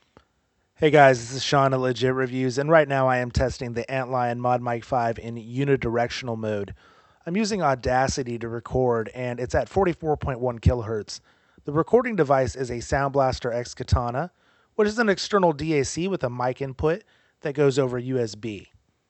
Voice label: unidirectional; modmic 5